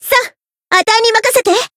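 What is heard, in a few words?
Voice variable chant Japanese; harle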